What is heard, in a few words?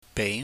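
"bei", Cantonese, mid rising tone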